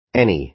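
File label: "any", American English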